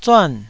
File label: "zuan4", taiwanese